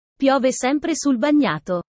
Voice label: italian sayings about life